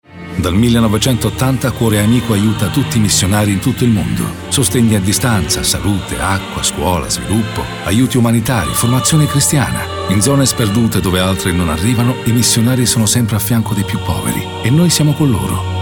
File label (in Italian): spot radiofonico; cuoreamico